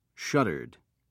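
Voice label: "shuttered", British English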